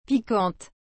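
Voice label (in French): "piquante", French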